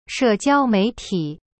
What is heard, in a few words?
"社交媒体" she jiao mei ti, chinese; cyberchinese